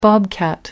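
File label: "bobcat", British English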